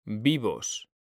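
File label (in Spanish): "VIVOS", Spanish, male; mekanta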